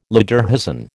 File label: "lederhosen", American